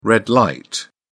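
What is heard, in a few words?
"red-light", British English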